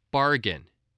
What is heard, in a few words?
"bargain", English